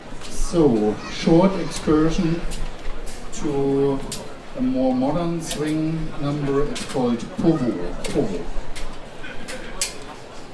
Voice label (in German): Ansage